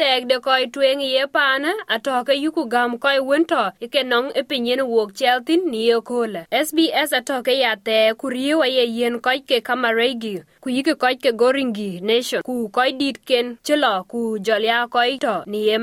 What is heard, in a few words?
dinka; SBS Dinka News Live 12/04/2024